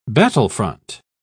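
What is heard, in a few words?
"battlefront", British English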